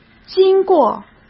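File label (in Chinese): "经过", Mandarin; chinese-flashcards; hsk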